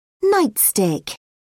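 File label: "nightstick", English, British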